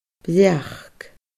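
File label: "breac", Scottish Gaelic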